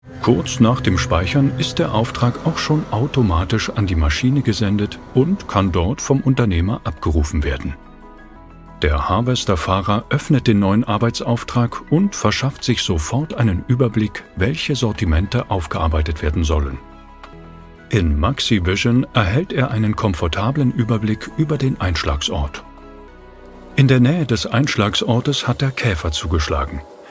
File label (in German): Hoerprobe Imagefilm; MaxiFleet